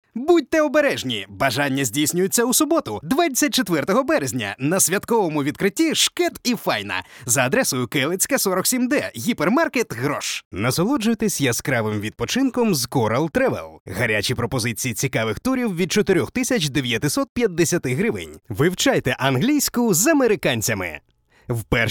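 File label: Ukrainian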